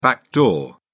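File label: "backdoor", British English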